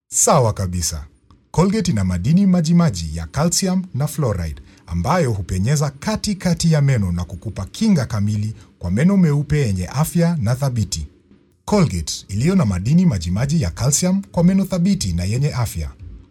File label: swahili voiceover locutor swahili; locutor Swahili de Kenia